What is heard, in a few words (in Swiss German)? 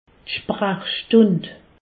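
"sprachstund", Alsatian; medecine; consultation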